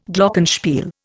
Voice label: "Glockenspiel", Indian

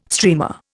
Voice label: "streamer", Indian

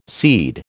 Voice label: "seed", American

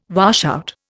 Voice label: "washout", Indian

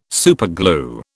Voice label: "superglue", British

